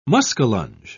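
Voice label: "muskellunge", British English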